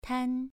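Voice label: "tan" Mandarin Chinese, second tone, pronunciation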